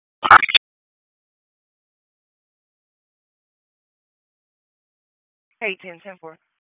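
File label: Dispatch, p25; WSO DISP 1; wake p25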